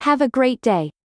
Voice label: Delivery Drone VO Common

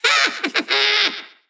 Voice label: Troll VO Success

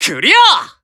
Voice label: Emo Glad

